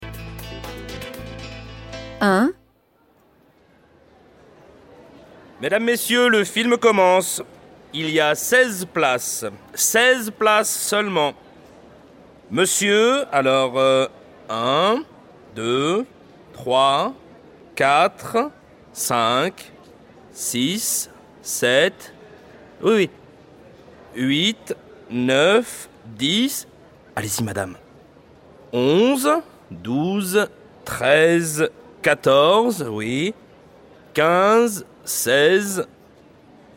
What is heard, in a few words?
French